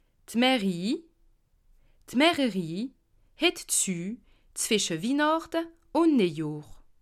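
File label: mairie zu, Bas Rhin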